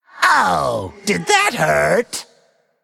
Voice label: Male Undead Attack

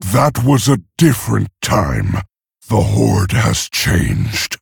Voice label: Male Orc Intro; Thrall

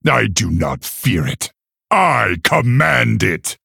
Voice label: Male Orc MIRROR START

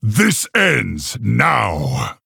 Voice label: Male Orc Attack

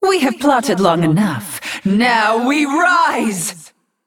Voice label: Female Naga

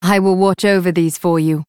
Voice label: Female Night Elf After Freezing

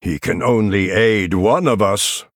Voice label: Male Night Elf MIRROR START

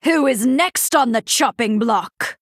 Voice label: Female Night Elf Play

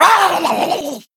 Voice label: Male Murloc Attack

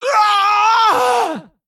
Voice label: Female Vrykul Death

